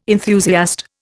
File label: "enthusiast", Indian